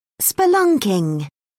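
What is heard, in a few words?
"spelunking", English, British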